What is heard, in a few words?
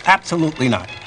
"absolutely not", expletives